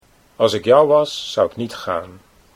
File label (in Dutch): als ik jou was, Dutch